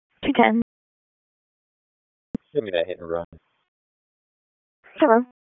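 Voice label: Police Northeast District Dispatch, p25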